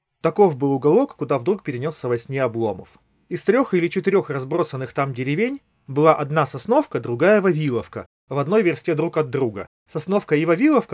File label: Recorder; Запись на профессиональный рекордер; soundpeats air5 pro